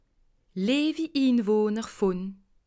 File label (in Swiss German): "inwohner", alsace